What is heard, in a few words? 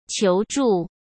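"求助", Mandarin Chinese; hsk; chinese-flashcards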